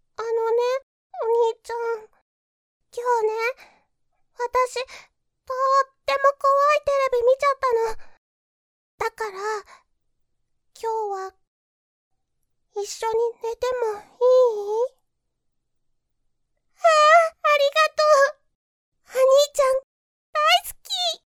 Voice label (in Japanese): 妹